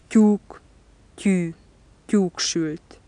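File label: palatal stop voiceless initial, hungarian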